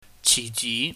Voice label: "chiji", Cantonese